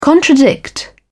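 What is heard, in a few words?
"contradict", British English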